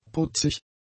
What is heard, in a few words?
"putzig", German; adjectives